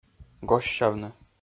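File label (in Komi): "госсявны", Komi, male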